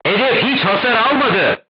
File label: voice message armor not pierce, turkish